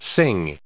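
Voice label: "sing", US English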